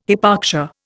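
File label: "hypoxia", Indian English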